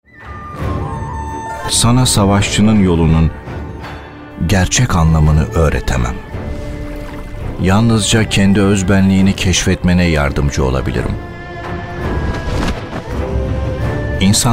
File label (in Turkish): fragman; Honor of kings